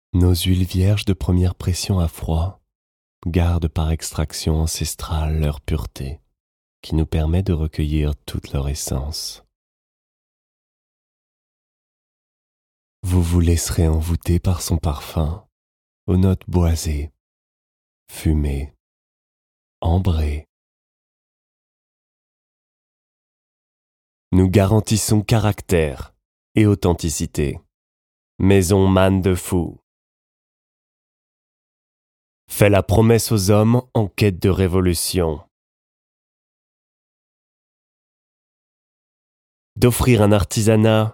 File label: french narration